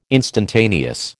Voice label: "instantaneous", American